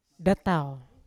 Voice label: datao to bite, Yawa

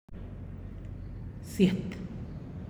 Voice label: lejos, zyét, far, Santiago Matatlán Zapotec

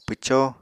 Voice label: "pwcó", Apatani

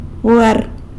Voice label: "buarr" donkey; san lucas quiavini

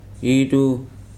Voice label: "eye", Weri

